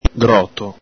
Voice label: "gròto", Venetian